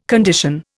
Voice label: "condition", Indian